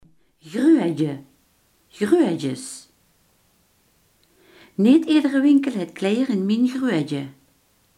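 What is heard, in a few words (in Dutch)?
grootte, Limburgse gesproken woordenboeken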